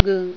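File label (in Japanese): "gun", Japanese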